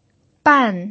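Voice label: "ban", pinyin, fourth tone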